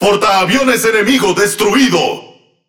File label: Speech Destroy Enemy Aircarrier, Languages ES; World Of Warships